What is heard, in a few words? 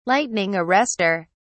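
"lightning arrester", English, British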